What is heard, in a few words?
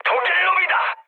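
Korean enemy detected mood high; speech; War Thunder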